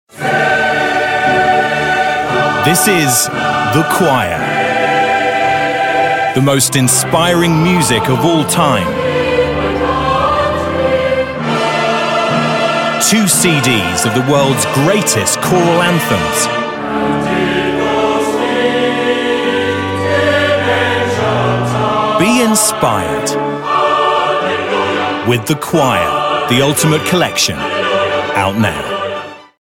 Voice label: Music Commercials; reels